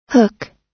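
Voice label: "hook", US English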